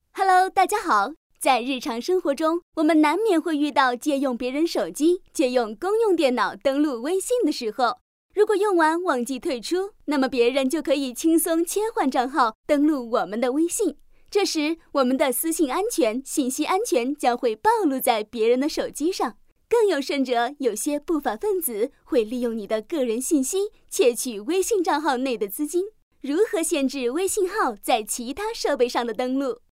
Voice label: 课件 产品介绍

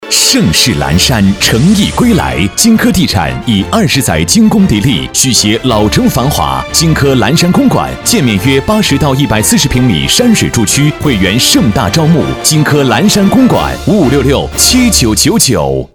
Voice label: 男 广告 大气; 澜山公馆

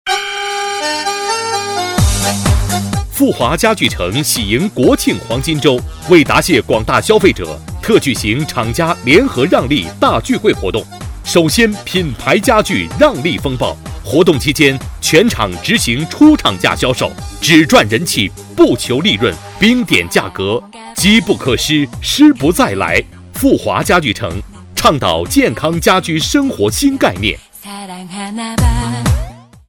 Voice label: 男 促销 男声; 家具

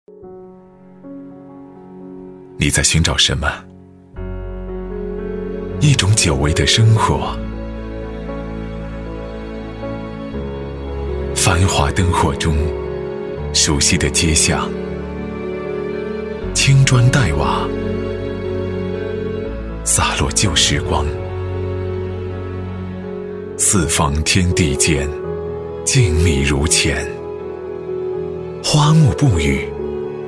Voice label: 男 专题 配音; 颐和园

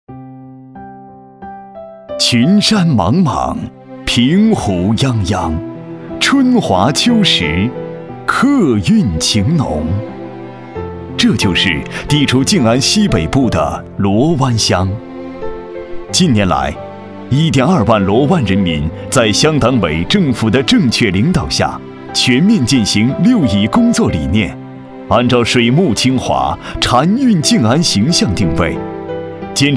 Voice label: 男-专题; 绿水青山

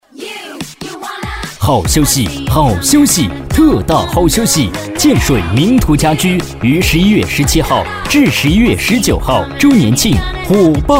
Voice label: 男, 促销; 铭图家居

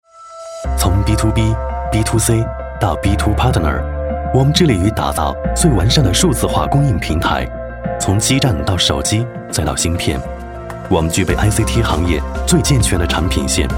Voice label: 男 专题 轻松; 华为

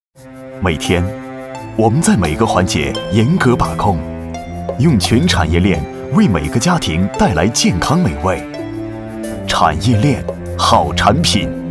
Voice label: 男-广告; 中粮集团

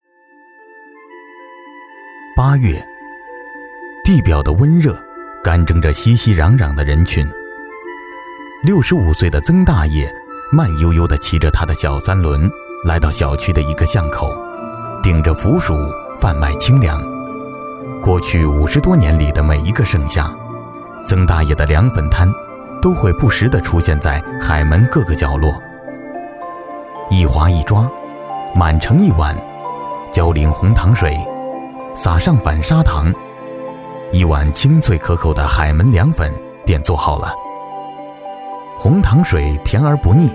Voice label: 男 纪录片 凉粉解说

